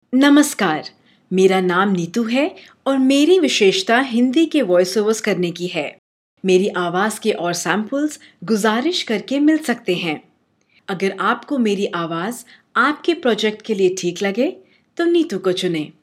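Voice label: Hindi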